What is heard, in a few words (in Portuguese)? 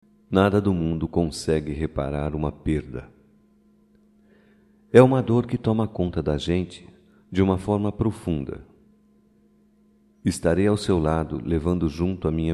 PÊSAMES, Só Voz, masc; Telemensagens; Telemensagens 2017